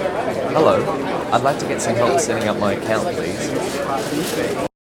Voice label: noisy support call